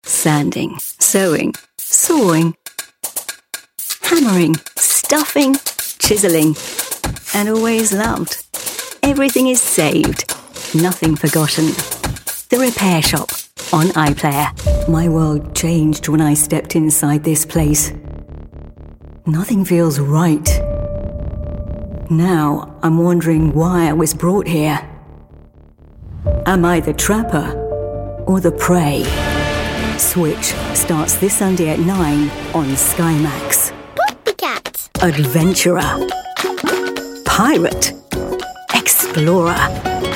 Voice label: promos

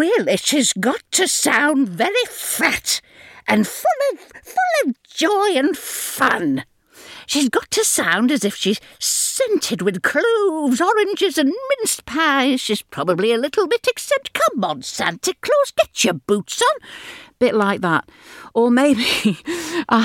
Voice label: christmas characters, Comedic Character Voice

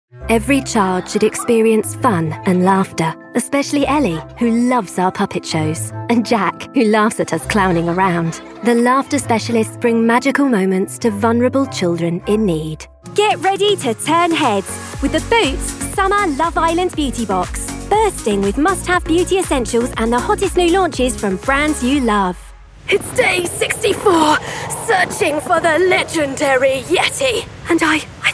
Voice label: commercial

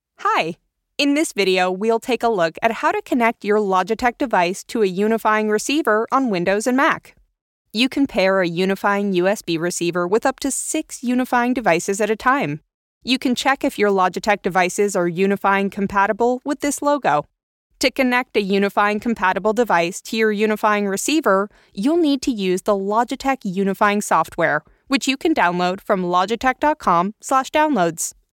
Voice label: elearning

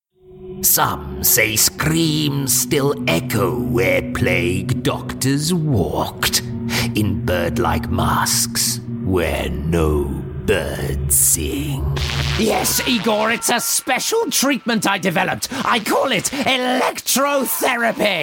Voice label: horror

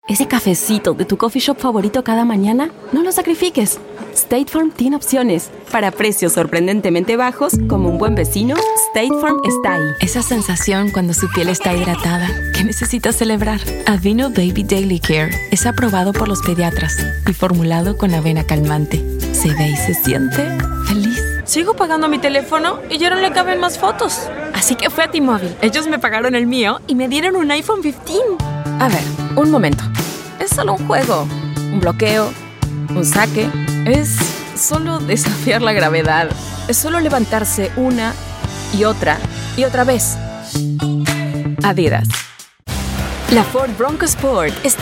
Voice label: argentinian spanish voice commercial

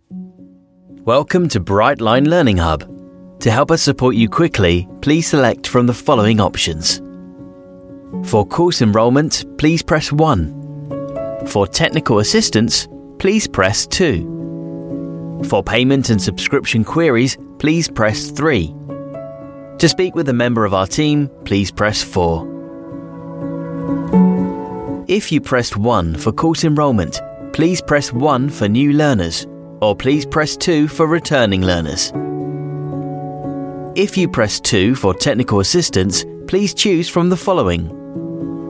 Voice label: telephone IVR

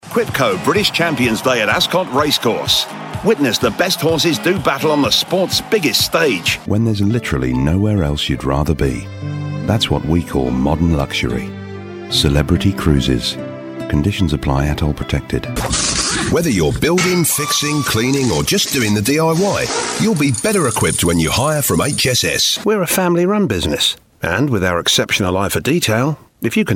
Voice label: new commercial

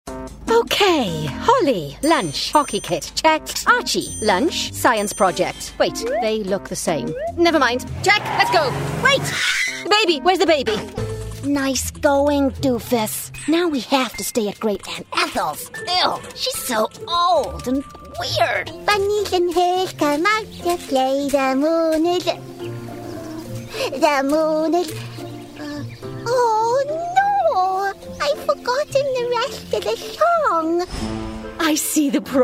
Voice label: animation characters

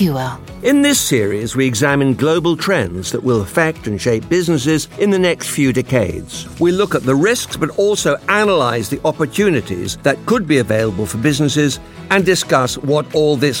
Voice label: podcast intro, voiceover

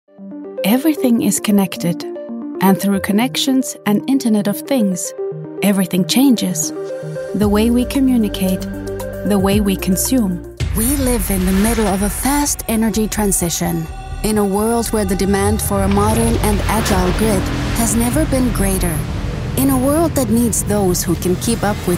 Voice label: finnish female voice english corporate

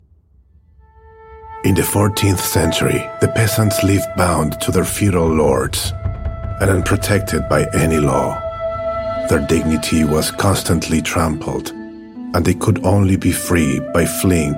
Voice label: Spanish male, documentary narration

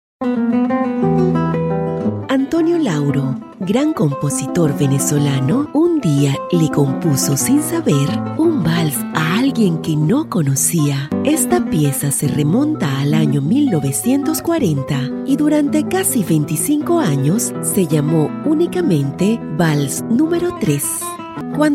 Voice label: Latin Spanish voice, documentary

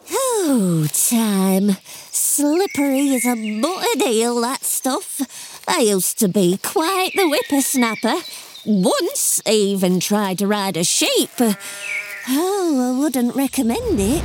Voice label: northern friendly old lady

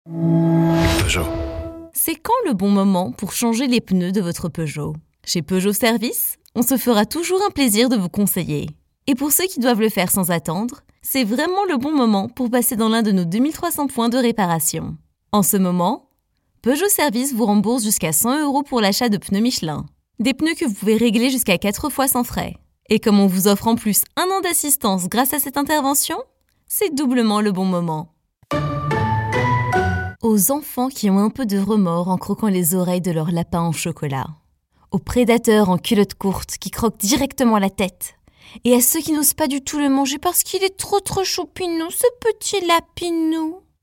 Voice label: French female, commercial

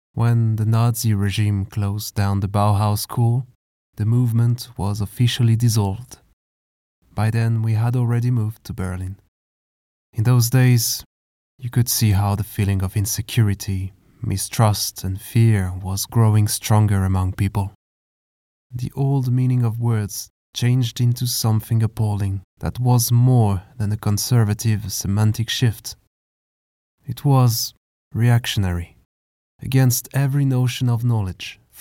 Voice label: french male character english; louis

